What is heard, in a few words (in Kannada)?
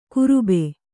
"ಕುರುಬೆ", Kannada